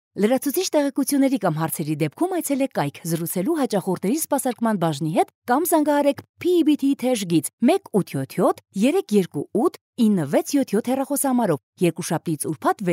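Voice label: Armenian, female; voice over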